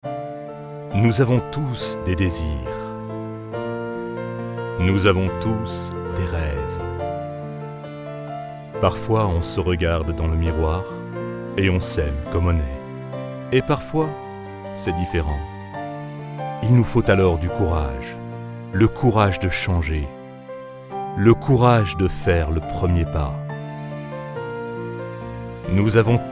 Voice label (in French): Voix grave douce rassurante; Weight Watchers